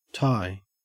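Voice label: "tie", Australian English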